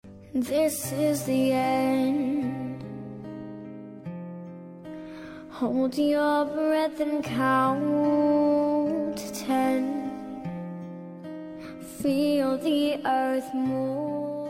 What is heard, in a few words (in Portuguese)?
dia dos namorados ex masculina; para ex namorada